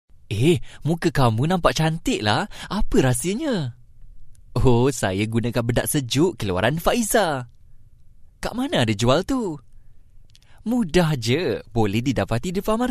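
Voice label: male, slow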